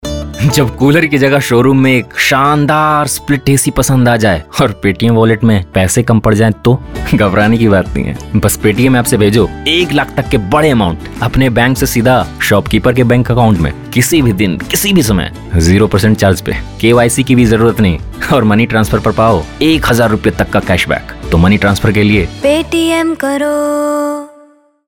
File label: Hindi, male voice; Paytm Cooler